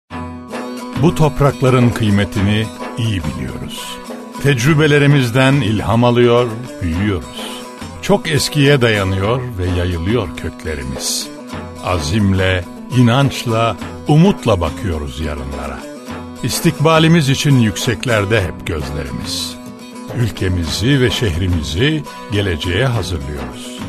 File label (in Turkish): tanitim kurumsal guvenveren